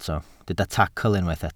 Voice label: "tacl", Welsh